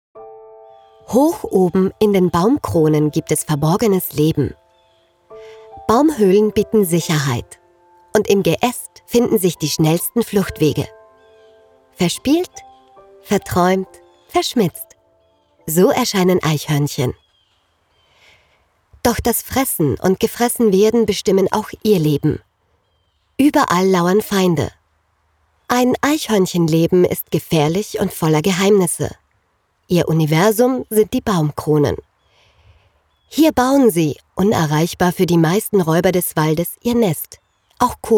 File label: Voice Over Musik SFX